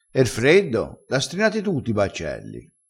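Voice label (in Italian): "strinato", dialettale, crespinese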